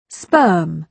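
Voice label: "sperm", British English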